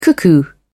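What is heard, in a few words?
"cuckoo", British English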